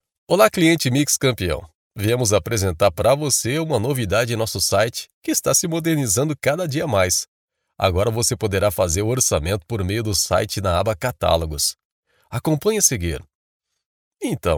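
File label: Brazilian elearning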